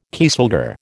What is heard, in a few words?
"kieselguhr", American